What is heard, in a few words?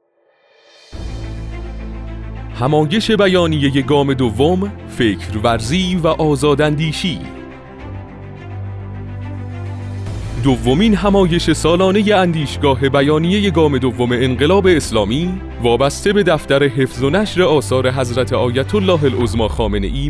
narrator